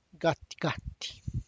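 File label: tlacochahuaya, Zapotec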